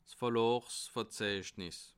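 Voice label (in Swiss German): "verlaasverzeichnis", Bas Rhin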